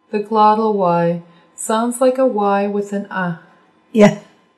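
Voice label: glottal y, Nuu-chah-nulth language; 42 glottle y; audio alphabet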